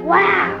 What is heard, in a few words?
"wow" kid; ooh ahh